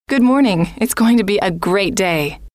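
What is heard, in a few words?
good Morning It S Going To Be A Great Day, female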